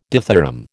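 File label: "dithyramb", American English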